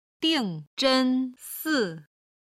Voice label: "dingzhensi", Chinese